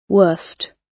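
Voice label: "worst", British English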